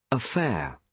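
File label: "affair", British English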